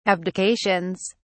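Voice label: "abdications", British English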